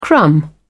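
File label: "crumb", British English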